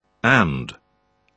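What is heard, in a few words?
"a", British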